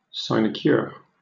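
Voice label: "sinecure", British English; pronunciations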